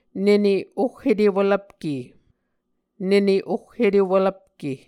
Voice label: "nene oh etewelvpke", Muscogee

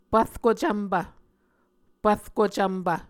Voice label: "pvrko cvmpv", Muscogee

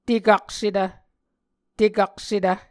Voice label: "tekaksetv", Muscogee

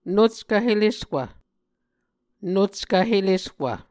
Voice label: "nuckv heleswv", Muscogee

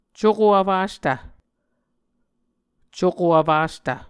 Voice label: "cuko vfastv", Muscogee

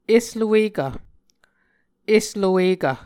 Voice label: "esluekv", Muscogee

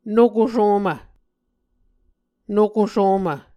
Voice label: "nokosomv", Muscogee

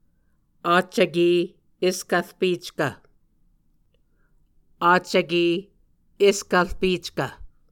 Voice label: "accvke eskvrpeckv", Muscogee; Mvskoke Words with Repeated Consonants; Onvkv Ohhvyayices; A Dictionary of Creek/Muskogee; SIL International

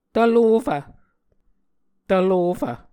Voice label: "tvlofv", muscogee

